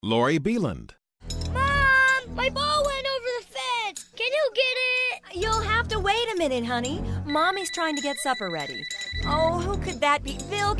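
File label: motherly, voice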